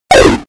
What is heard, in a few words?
evil action; CAC ASSETS